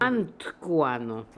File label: "Antkooano", pronounciations; Fourth Way; Beelzebub